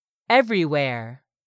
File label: "EVERYWHERE", english; 3000 words